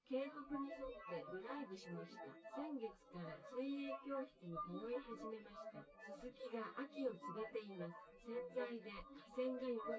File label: female synthconv